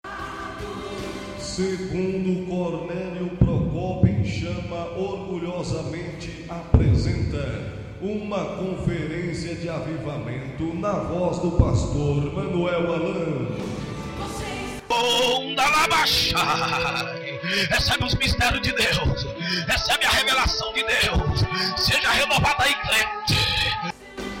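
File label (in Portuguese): vinheta da mensagem vinho e vinho novo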